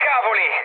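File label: Italian trick mood low, speech; War Thunder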